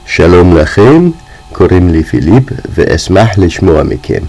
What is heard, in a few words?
Hebrew